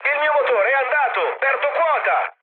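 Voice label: Italian damaged engine mood med, speech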